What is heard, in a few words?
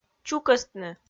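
"чукӧстны", Komi, female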